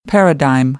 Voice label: "paradigm", American English; ɛ - æ

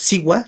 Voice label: "siua", Náhuatl; partícula género femenino; feminine gender particle

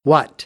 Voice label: "what", American English; ɑː - ɒ

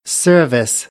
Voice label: "service", American English; ɝː - ɜː

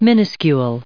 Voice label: "minuscule", English

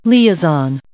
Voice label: "liaison", English

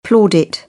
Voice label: "plaudit", British English

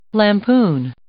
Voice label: "lampoon", English

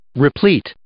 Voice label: "replete", English

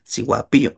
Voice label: "siuapiyo", Náhuatl; gallina; hen

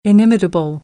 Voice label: "inimitable", American; English

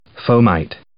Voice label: "fomite", English

